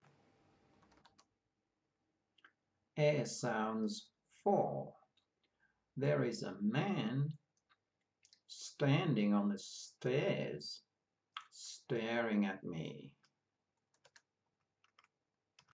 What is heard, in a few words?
Ere sounds, pronunciation of australian english